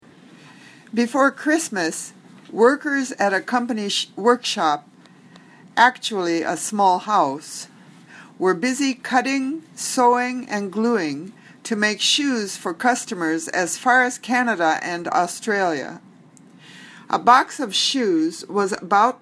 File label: Reading Drill